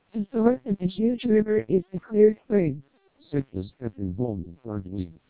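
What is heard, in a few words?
TWELP 400 bps Vocoder, American speech, SNR=10dB, NCSE Enabled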